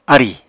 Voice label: "arì", Apatani; [arì] v. demarcate; delimit; delineate